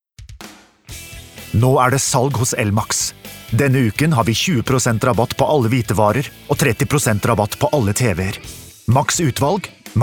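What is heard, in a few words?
no commercial hard, Norwegian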